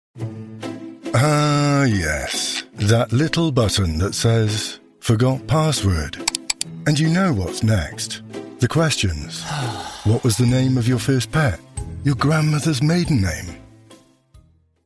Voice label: Commercial, Password Manager App / Subtle, Humorous, Deadpan